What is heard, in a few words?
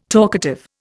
"talkative", Indian